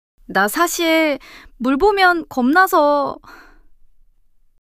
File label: fluster